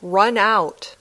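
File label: "run out", English words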